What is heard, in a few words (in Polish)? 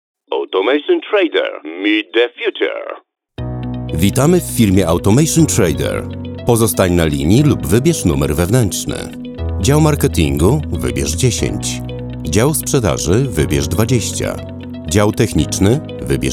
automation komunikaty ivr